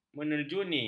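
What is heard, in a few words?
"menerjuni", Malay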